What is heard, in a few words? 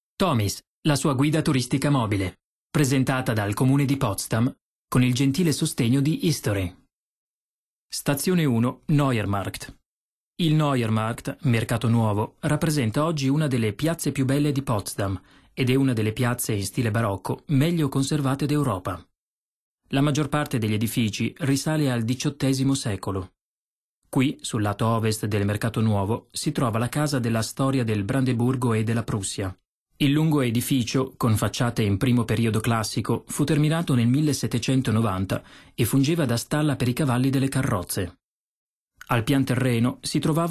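audioguide italian; Potsdam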